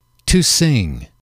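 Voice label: "to sing", English, male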